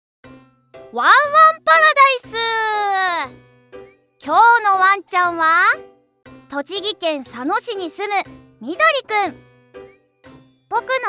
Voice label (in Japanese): パターン 番組ナレーション